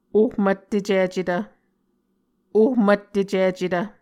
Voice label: "ohmvttecicetv", Muscogee